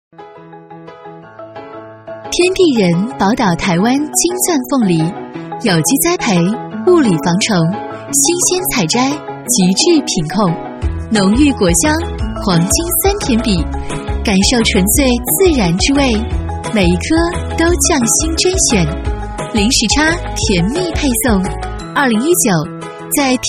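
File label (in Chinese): 女S112 国语 女声 广告-水果品质广告 甜美 积极向上 神秘性感 调性走心 亲切甜美; 台湾凤梨水果品质广告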